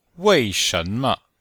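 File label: "wei4shen2me5", Mandarin Chinese; Cours de Chinois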